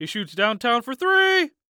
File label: Human Voice Male Young Man Basketball Announcer He Shoots Downtown For Three; Sports